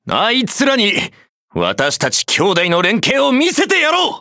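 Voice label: start, Japanese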